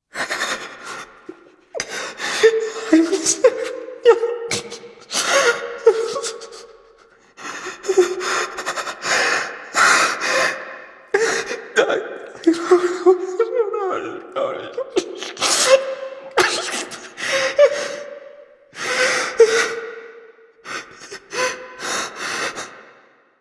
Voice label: Class Intro Student Male A, Student Whimper; New War; Zariman